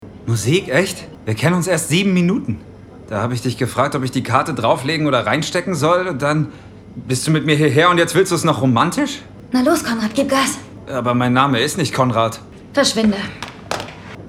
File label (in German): Synchron Teenie Komödie